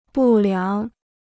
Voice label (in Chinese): "不良", Chinese